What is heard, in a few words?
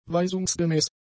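"weisungsgemäß", German; adjectives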